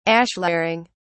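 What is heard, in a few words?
"ashlaring", English, British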